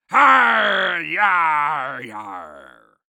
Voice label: Human Pirate Say Yarr Hey Yarr

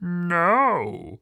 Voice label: "No", Human Male

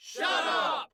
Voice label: Human Crowd "Shut Up"

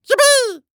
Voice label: Cartoon Human Male Yell "Yippee" Cartoon; AdobeCC

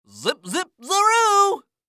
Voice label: Cartoon Voice Zip Zip Zaroo

